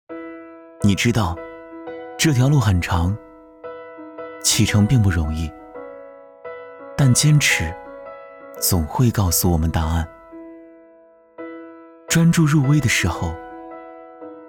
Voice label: 男 走心 积极旁白

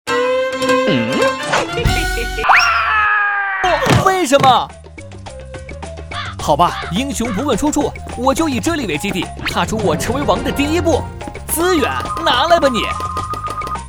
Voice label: 男 信息流 游戏推广

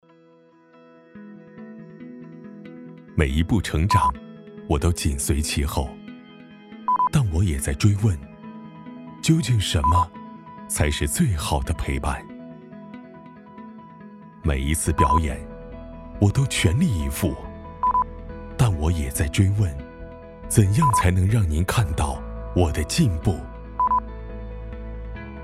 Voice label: 男,广告; 联通三千兆

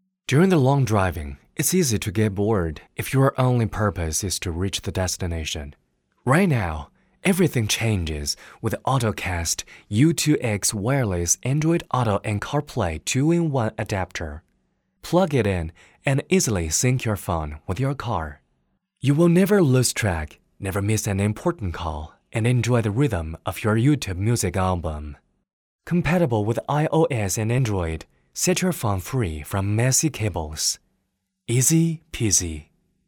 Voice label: 男 英文 旁白男

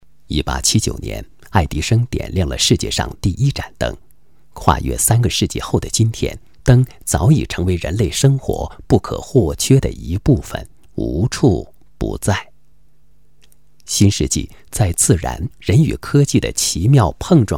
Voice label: 男 专题, 国语男声配音; 男年光革命专题

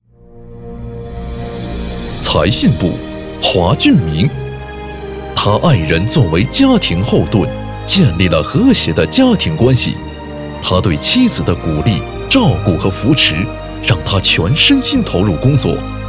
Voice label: 男 颁奖专题 年会颁奖典礼

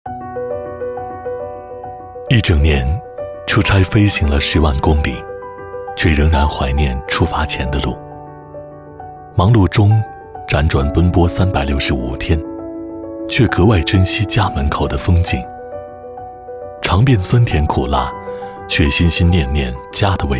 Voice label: 男, 旁白, 深情温柔; 思家团圆

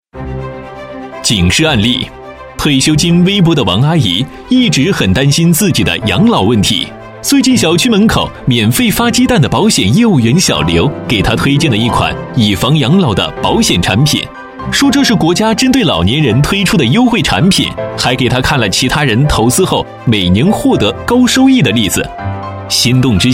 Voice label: 男 专题 警示案例

